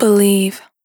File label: "Believe", Vocal Samples, Words